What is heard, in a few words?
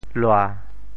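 "lua7", Teochew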